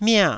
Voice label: "mia4", Taiwanese syllable

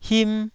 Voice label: "him1", Taiwanese syllable

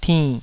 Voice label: "tinn3", Taiwanese

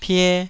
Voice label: "pie2", Taiwanese

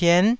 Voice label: "pien9", taiwanese

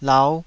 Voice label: "lau5", taiwanese syllables

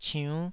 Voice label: "ciunn5", Taiwanese

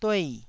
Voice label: "duei4", taiwanese syllable

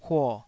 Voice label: "huo3", taiwanese syllable

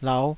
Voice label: "lauh5", Taiwanese syllable

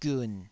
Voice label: "giun3", taiwanese

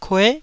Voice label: "kue9", Taiwanese syllable

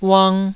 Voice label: "uang2", taiwanese Syllables

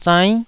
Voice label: "zainn9", taiwanese syllables

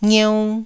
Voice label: "ngieu1", taiwanese syllables

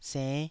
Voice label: "senn5", taiwanese syllable

